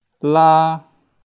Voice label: "laa1", Cantonese

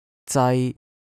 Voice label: "zai1", cantonese

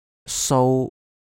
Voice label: "sou1", cantonese

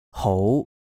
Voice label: "hou2", cantonese

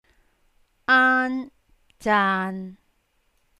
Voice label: aan zaan3, cantonese

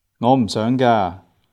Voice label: "gaa3", Cantonese

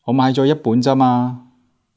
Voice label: "zaa1 maa3", Cantonese

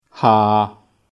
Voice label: "haa6", Cantonese

